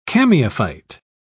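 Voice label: "chamaephyte", British English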